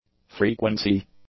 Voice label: "frequency", English; nouns